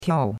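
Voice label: "tiao4", Mandarin Chinese; Cours-de-Chinois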